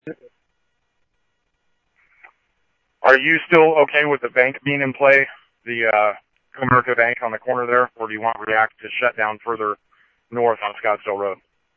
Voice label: Scottsdale Police scanner; FatalPed Hit Run Scottsdale; H-Scottsdale; SCTSDLE PD - PD1